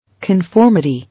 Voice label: "Conformity", English

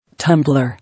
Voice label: "Tumbler", English

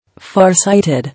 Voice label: "Far sighted", English

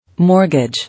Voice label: "Mortgage", English

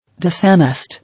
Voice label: "Dishonest" English

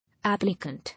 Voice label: "Applicant", English

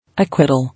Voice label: "Acquittal", English

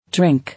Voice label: "Drink", English

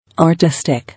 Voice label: "Artistic", English

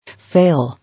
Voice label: "Fail", English

